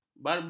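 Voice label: "barbeku", Malay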